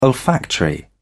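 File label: "olfactory", British English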